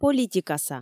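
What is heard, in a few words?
"политикаса", Komi, female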